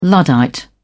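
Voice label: "luddite", British English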